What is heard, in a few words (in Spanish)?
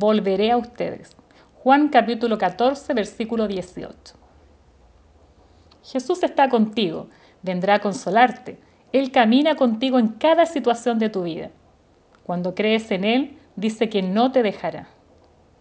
devocional